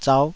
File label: "zauh5", taiwanese syllables